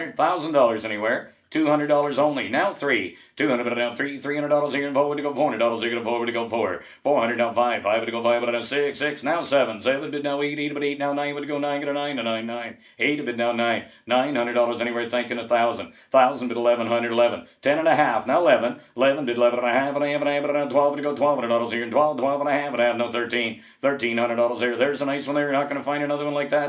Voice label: Auctioneer, professional, selling antique doll; up to $2,100, clean..(without background ambience) (human voice); Talking; AuctioneerDoll; VoiceBox

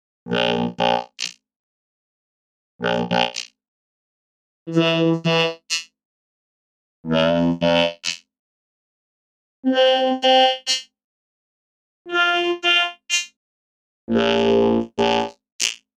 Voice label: Robot Spoken, Robot spoken in electronic voice.

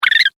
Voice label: Cartoon Chipmunk, cartoon voice

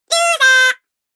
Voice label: Loser Cartoon Game Voice

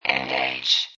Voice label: Robot Voice "Engage"

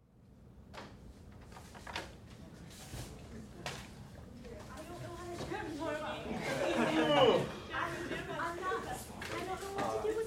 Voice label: Crowd High School Class In Sit Down Chat; Happy Reax; Yess; Schools; Big Room Sound

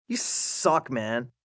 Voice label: You Suck Man, Attitude, male; Talking

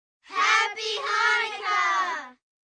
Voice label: Group Chanukah, Group, 6-8 children; Children Sound Effects

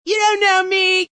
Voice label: You Dont Know Me, Attitude, Female

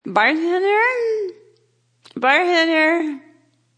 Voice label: Female Drunk Says Bartender, Drunk female talking; Voice; Talking Sound Effects

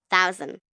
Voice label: Numbers "Thousand", 1 child, girl counting

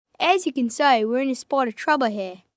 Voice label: Aussie Trouble, 1 boy, 11 yrs; Talking Sound Effects

